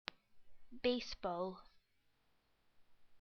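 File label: "baseball", English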